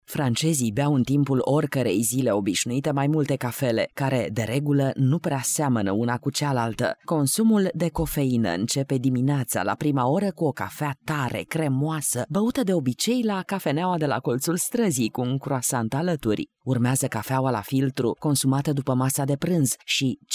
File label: female romanian voice over narrative